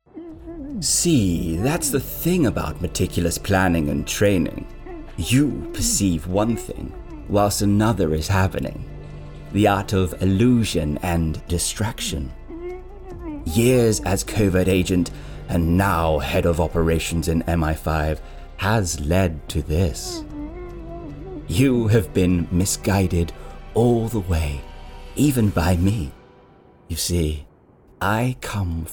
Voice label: British Spy

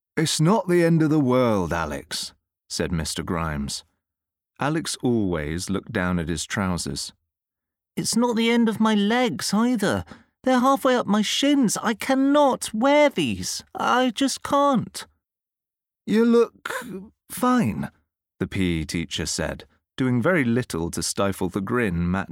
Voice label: Audiobook; AlexVsAxel

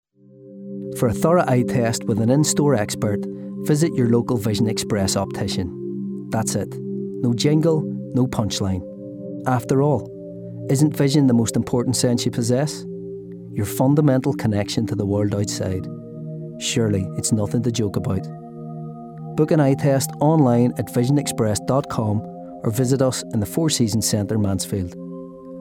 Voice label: Northern Irish Simple no nonsense; Vision Express

